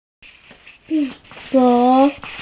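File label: "qi4qiu2", Mandarin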